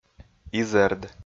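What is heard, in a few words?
"изэрд", Komi, male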